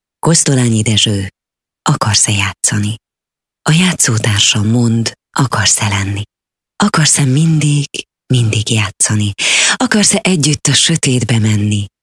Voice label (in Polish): Wegierski